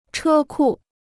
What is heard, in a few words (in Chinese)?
"车库", Chinese